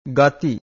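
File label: "gati", Pali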